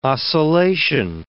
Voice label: "oscillation", English

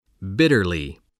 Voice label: "bitterly", spelling

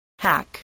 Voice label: "hack", spelling

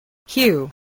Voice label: "hue", voice spelling

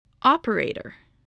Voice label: "operator", voice, spelling

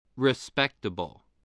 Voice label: "respectable", English

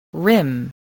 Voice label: "rim", voice spelling

